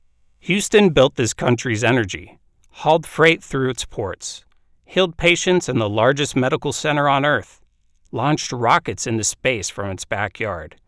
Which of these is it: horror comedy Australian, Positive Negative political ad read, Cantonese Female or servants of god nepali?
Positive Negative political ad read